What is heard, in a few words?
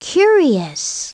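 "curious", English; WordBank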